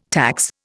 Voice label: "tax", Indian

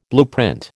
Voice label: "blueprint", American

